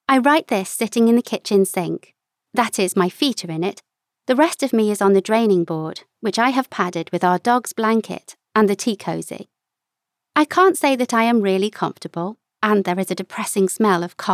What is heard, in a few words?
Fiction First Person Narrative